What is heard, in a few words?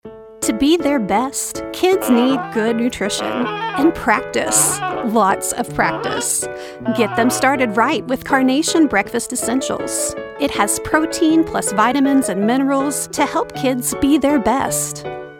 Commercial